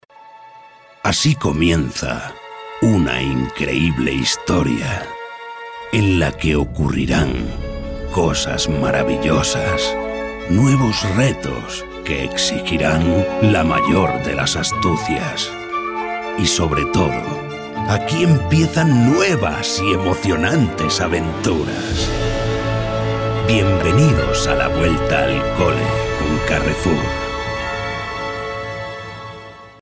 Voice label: Voiceover Comercial; Carrefour